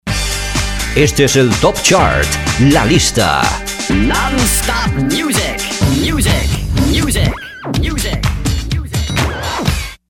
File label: jingle